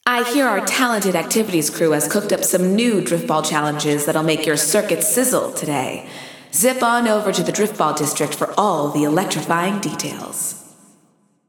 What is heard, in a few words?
Announcing New Challenges; Driftball; GAIA